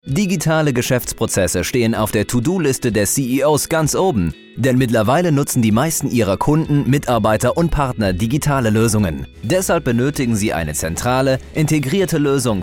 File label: German Corporate Voicereel